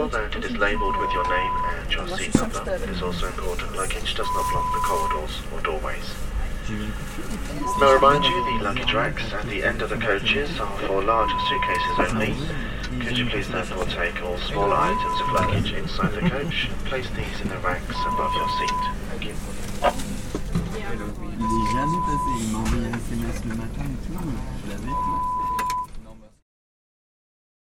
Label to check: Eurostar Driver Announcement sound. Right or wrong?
Right